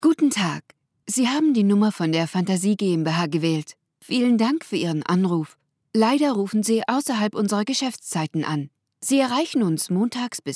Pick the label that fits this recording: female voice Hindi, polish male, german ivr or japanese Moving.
german ivr